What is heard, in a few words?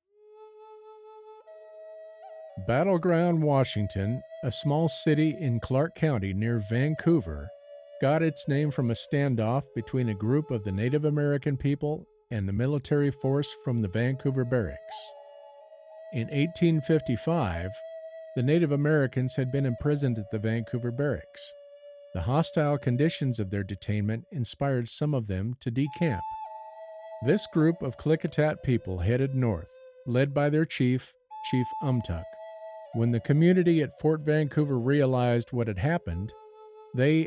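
Documentary, voiceover; Battle Ground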